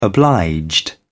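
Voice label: "obliged", British English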